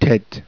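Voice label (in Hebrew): "tet", Hebrew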